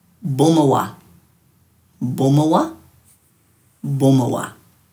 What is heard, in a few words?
"bumawa", Dhurga